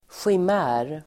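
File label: "chimär", Swedish; Uttal: [sjim'ä:r]; chimaera; illusion (fantasy, illusion)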